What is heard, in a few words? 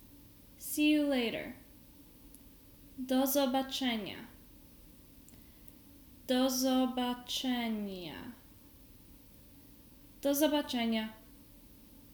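Polish see you later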